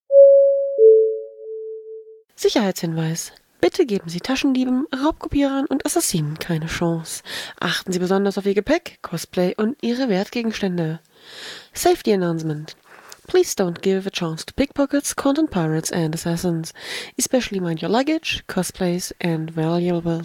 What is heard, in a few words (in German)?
durchsage